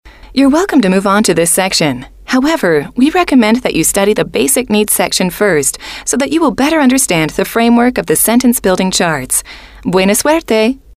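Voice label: you are welcome to move on to this section, female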